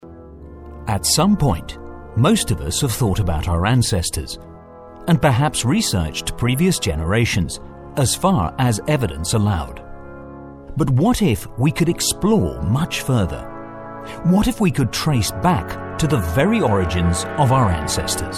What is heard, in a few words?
documentary